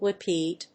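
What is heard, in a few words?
"lipide", English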